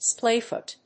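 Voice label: "splayfoot", English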